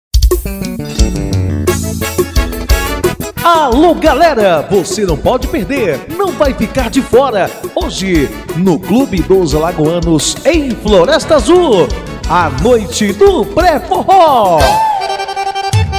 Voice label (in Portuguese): locutor; pre forro floresta azul